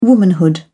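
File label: "womanhood", British English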